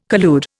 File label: "collude", Indian